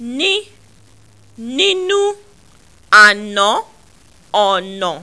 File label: "n nnn", Yoruba; CONSONANTS; ninu-ana-ona